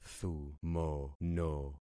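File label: "thumono", concatenated syllables; Affixing experiment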